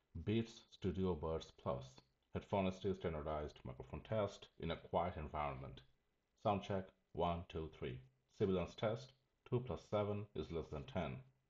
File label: Quiet; beats studio buds plus